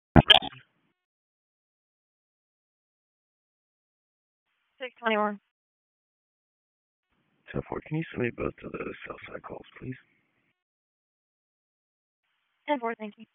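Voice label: Dispatch 1, P25; WSO DISP 1; wake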